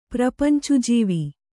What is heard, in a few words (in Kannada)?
"ಪ್ರಪಂಚುಜೀವಿ", Kannada